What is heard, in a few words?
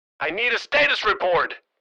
Military radio voice command status report